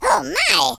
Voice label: what the, French Lover; speech

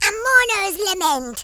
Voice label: bummer, Horror

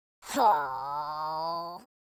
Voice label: sad, speech, War General

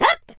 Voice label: jump, Welsh; speech

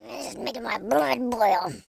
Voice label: angry; speech

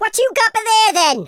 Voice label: what the, Welsh; speech

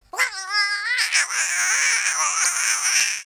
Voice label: scared, Russki

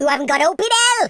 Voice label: "revenge", Welsh; speech

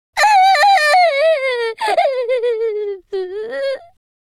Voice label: sad, Spanish; speech

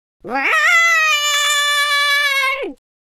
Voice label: scared, Footy Fan speech

